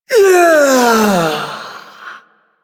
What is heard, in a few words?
Ability Throw, Barks; Warframe; Corpus Lich; Persona C